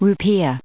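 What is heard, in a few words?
"rupiah", American English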